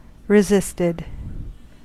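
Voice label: "resisted", US English; pronunciations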